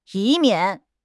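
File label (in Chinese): "以免", Chinese; HSK6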